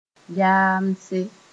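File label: "yaamse", Mooré; tiredness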